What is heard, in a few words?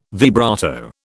"vibrato", British